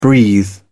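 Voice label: "breathe", British English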